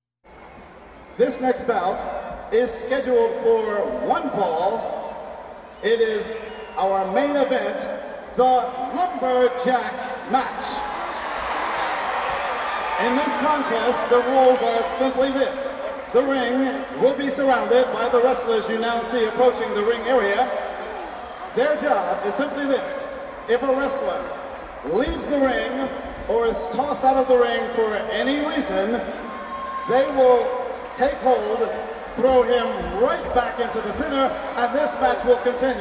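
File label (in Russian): объявления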